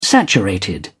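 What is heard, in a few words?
"saturated", British English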